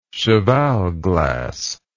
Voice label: "chevalglass", English